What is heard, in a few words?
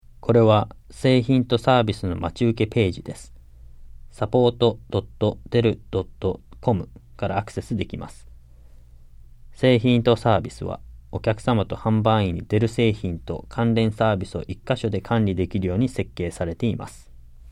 JAPANESE; delltranslations